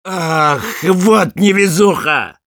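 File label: jeers, Russian; Sniper; «Ах, вот невезуха!»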